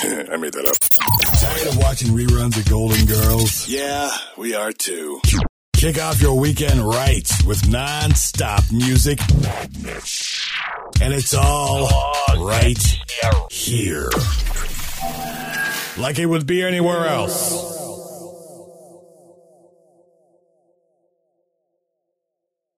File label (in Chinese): 外籍男 Radio